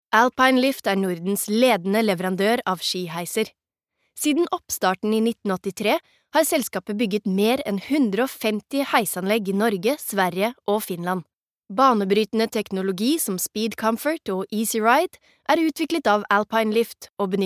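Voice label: Norwegian corporate, female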